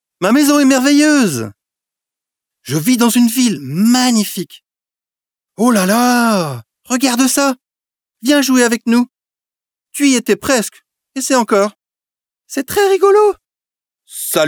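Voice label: French male children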